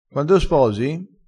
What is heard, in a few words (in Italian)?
"sposa", crespinese